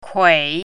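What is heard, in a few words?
"kui3", Mandarin Chinese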